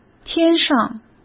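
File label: "天上" Mandarin Chinese; hsk; chinese-flashcards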